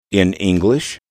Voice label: "in English", English

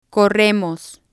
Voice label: "corremos", spanish

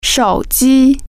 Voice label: "cell phone", Chinese

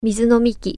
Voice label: drinking fountain, japanese

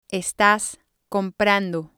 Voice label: "estas comprando", spanish

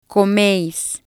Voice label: "comeis", spanish

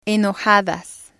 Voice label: "enojadas", Spanish

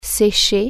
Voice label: "dry off", French

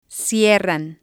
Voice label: "cierran", spanish

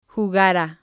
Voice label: "jugara", spanish

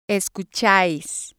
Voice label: "escuchais", Spanish

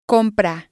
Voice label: "compra", Spanish

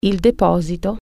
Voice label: the deposit, italian

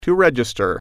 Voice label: "register", English

